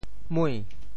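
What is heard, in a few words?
"mui2", Teochew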